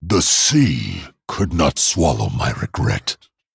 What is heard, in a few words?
Male Dragon Sorry